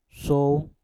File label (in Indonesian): "sou" pulau, Mpur